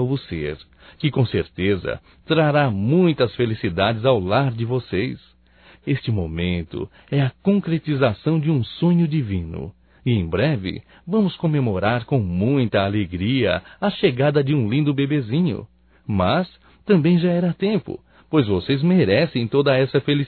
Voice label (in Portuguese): Parabéns pela Gravidez Masculino